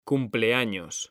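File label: "cumpleaños", Spanish, male; mekanta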